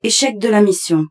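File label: French, mission failed; Missfail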